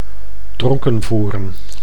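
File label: "dronken voeren", Dutch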